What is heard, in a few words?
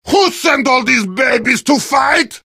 heavy taunts; video games; source engine; tf